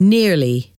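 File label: "nearly", English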